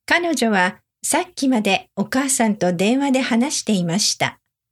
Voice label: talk to mother normal speed, Japanese

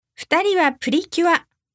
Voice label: "anime", japanese

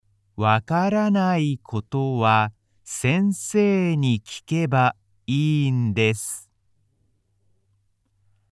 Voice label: beginner wakaranai koto wa slow speed

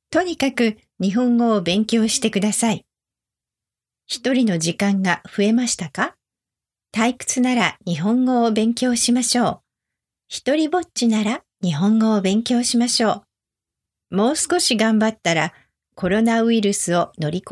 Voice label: anyway study Japanese normal speed

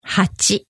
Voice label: "hachi", Japanese

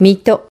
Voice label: "ibaraki", Japanese

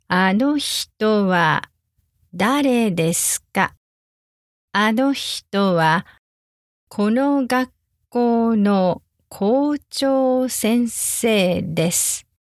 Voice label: sentence slow, japanese

